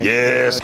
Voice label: grunt yes